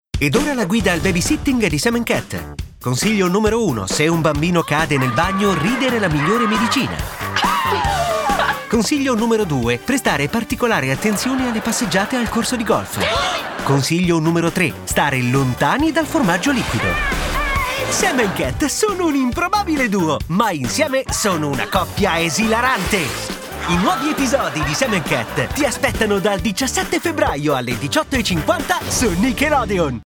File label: Promo